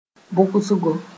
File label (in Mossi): "bʋgsgo", Moore; meek